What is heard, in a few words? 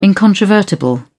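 "incontrovertible", English, British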